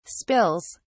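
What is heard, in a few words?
"spills", British English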